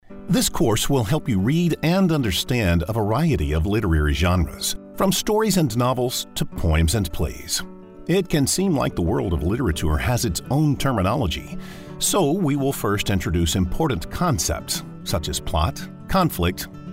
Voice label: Elearning